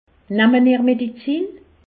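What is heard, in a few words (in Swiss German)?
"medizin", Alsatian; medecine; prenez vous des médicaments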